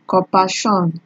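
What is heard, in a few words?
"corpershun", Nigerian Pidgin, female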